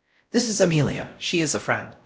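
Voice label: Voice Acting Spanish, male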